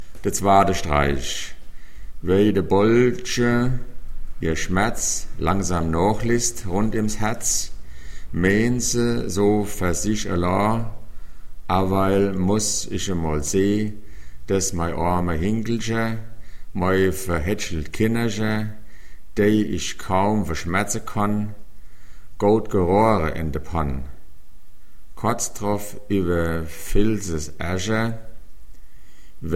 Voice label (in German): dialekte Hessisch